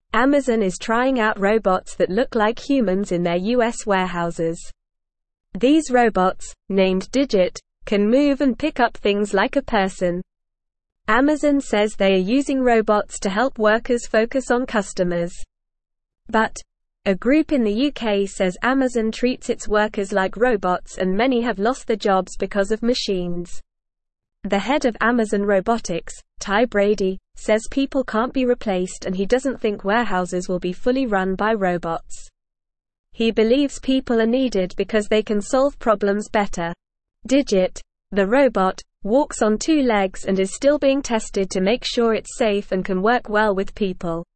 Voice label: English Lower Intermediate NORMAL Reading; Amazon Tests Human Like Robots to Help Workers; English Newsroom